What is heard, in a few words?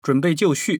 chinese Male villager; age2